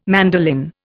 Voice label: "mandolin", Indian